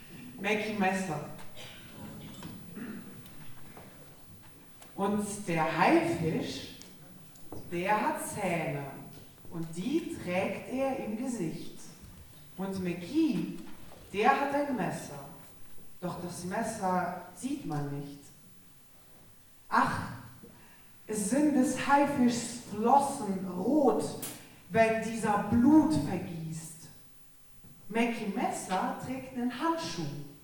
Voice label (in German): Ansage